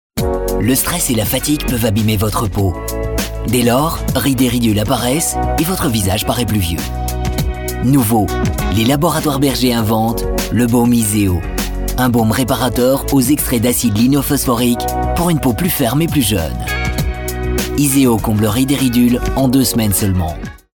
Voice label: locutor frances belgica french belgian voiceover

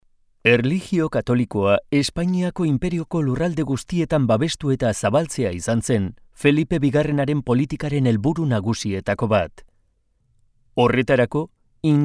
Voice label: locutor euskara basque voiceover

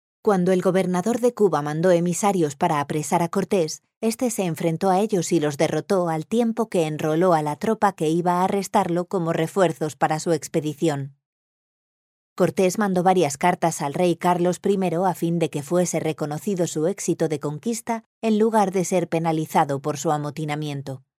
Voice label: locutora spanish voiceover

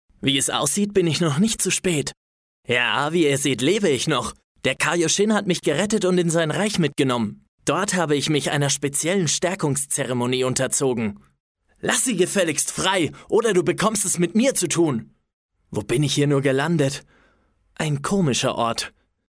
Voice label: locutor aleman german voiceover; Locutores alemanes

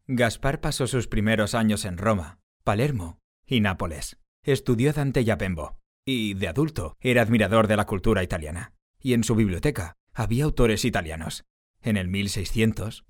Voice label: locutor spanish voiceover

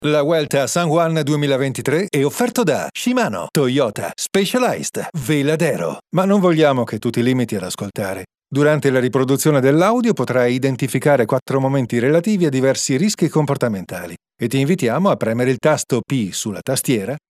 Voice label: locutor italiano italian voiceover

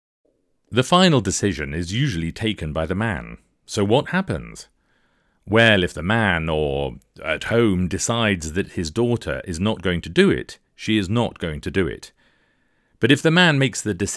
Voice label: locutor ingles english voiceover; English British voice talent

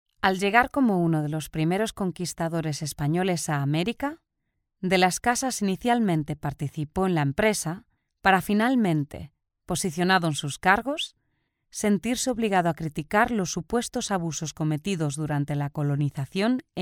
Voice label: locutora spanish voiceover

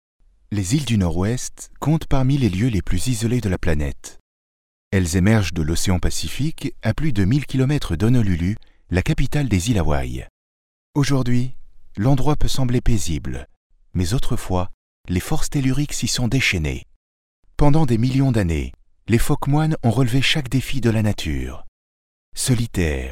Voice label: locutor audiolibros french audiobook voiceover; locutor de audiolibros

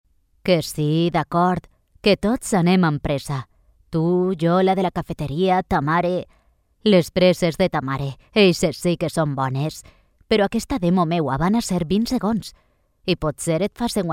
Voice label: locutora valenciana valencian voiceover

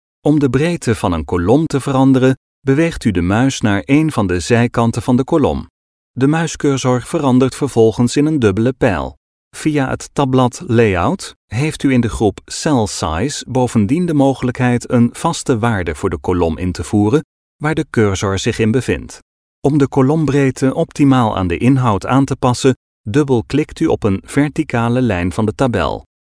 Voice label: locutor holandes dutch voice over

